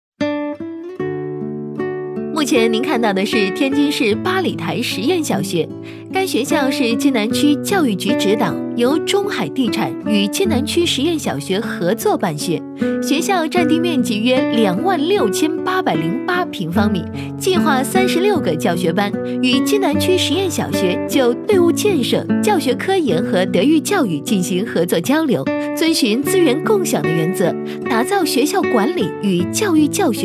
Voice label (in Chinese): 女 温馨广播; 津南区八里台实验小学